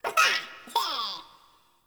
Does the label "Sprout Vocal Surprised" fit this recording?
Yes